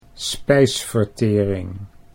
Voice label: "spijsvertering", Dutch